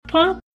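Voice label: "punk", US English; pronunciations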